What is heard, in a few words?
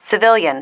"civilian", female